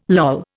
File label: "loll", Indian